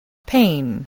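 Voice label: "pain", spoken word, spelling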